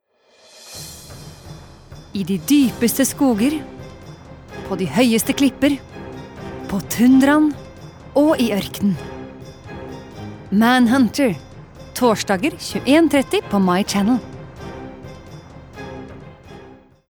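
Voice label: Norwegian dramatic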